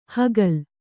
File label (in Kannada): "ಹುಗಿಲು" Kannada